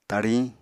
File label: "tariñ", apatani